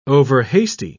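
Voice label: "overhasty", British English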